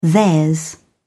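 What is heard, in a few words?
"theirs", British English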